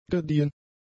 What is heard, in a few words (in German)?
"gediehen", German; adjectives